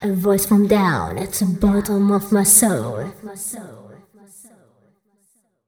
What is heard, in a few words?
fem spo, Vocals; Mutekki Media; Diamond Vocals 2